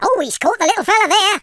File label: dragon punch, Footy Fan speech